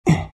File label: treant pain